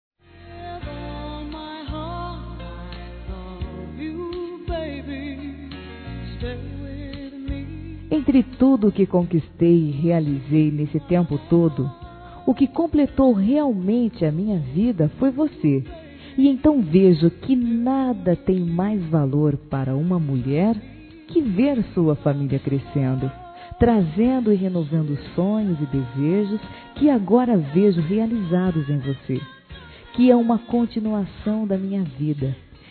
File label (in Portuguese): Neta Criança Feminino, Aniversário Infantil; Telemensagens